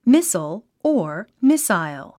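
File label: "missile", 英単語 発音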